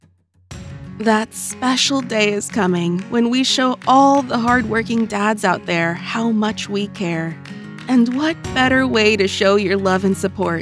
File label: Commercial